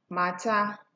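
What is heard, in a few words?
"matter", Nigerian Pidgin, female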